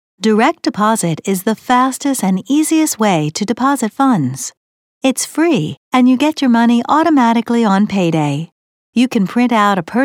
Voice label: IVR English Spanish